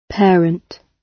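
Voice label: "parent", British English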